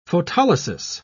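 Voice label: "photolysis", British English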